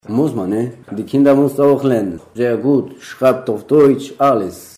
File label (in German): Deutsch sprechen